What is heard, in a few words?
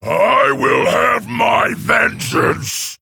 Male Fel Orc Play